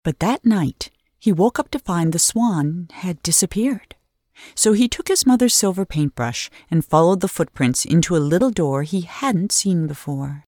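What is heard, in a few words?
narration voice over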